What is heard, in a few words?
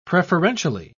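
"preferentially", English, British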